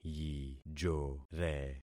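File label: "yijore", concatenated syllables; Affixing experiment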